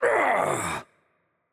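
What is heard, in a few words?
Spanish hurt heavy voice; bloom minigame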